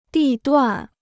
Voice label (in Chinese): "地段", Chinese